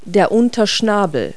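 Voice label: "lower mandible", English words; Multi-Lingual Talking Picture Dictionary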